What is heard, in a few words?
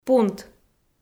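"пунт", Komi, female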